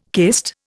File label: "kist", Indian